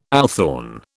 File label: "althorn", British